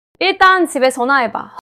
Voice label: neutral, monologue

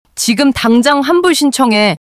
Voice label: anger

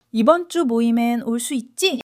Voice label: joy

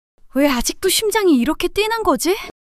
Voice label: fluster; monologue

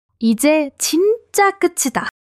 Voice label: joy; monologue

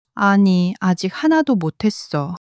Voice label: sadness, monologue

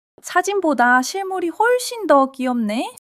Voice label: joy; monologue

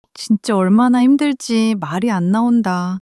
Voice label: sadness, monologue

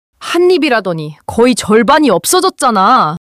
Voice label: anger; monologue

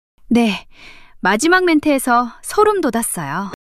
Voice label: surprise, monologue

